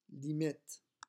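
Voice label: "limite", French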